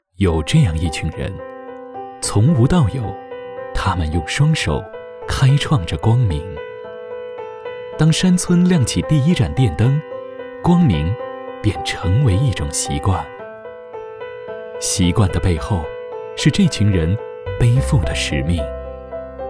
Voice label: 品质, 年轻, 感人; 不忘初心守卫光明

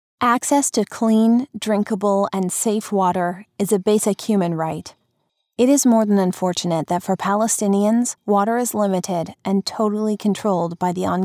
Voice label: 外籍英女 干音 公益宣传

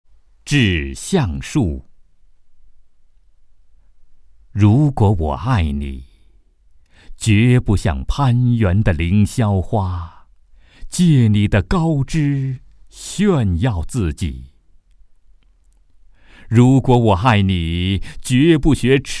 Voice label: 男 抒情诗歌, 国语男声配音

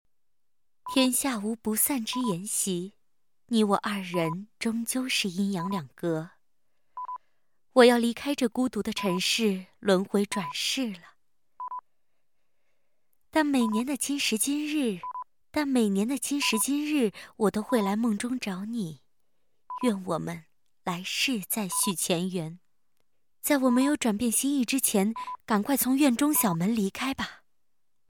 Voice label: 女, 游戏动漫, 御姐音; 密室

